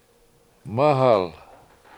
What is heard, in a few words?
mAhal White Something, Washo